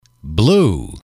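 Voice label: "blue", English, male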